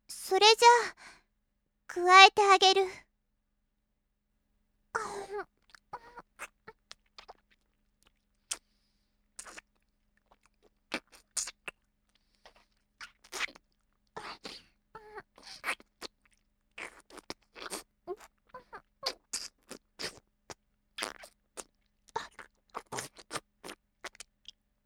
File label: tsundere; adult; freezing